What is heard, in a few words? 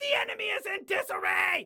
Enemy Disarray, Excited Guy